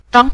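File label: "zhang", Mandarin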